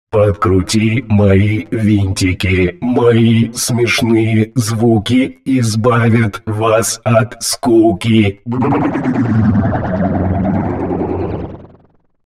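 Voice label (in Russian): робот инопланетянин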